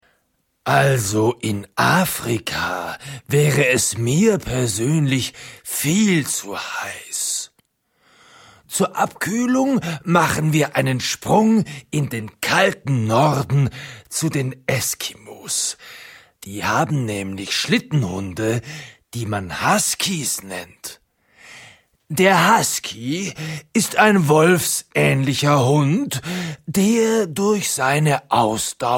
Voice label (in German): Erzaehler